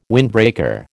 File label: "windbreaker", American